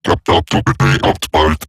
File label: Patrol Robot Large, Barks, Battle